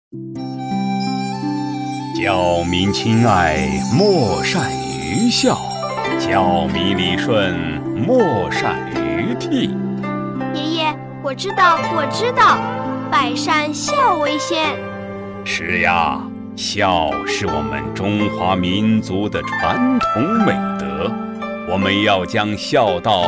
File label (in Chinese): 男,人物,老年,真童; 齐鲁父子